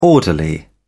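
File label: "orderly", English, British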